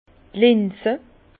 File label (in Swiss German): "linze", Bas Rhin; lentilles; vin